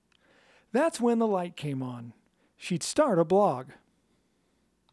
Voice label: Mechanic Shop Femme VO Scratch